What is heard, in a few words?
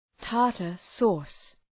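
"tartar sauce", English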